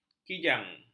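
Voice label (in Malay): "kijang", Malay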